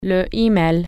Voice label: email, french

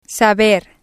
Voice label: saber, spanish

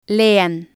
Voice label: "lean", spanish

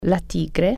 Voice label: tiger, italian

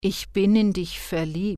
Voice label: i am in love female, german

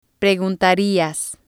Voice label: "preguntarias", spanish

